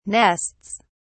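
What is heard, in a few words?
"nests", British English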